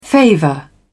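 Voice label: "favour", British English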